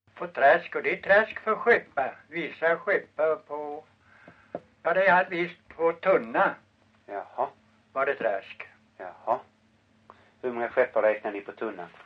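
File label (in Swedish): Långlöt Småland, dialekter; Folkminnen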